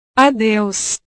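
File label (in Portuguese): "adeus", Portuguese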